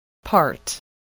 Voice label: "part", English, voice